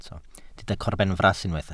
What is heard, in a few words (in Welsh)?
"corbenfras" Welsh